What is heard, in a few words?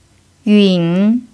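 "yun3", Chinese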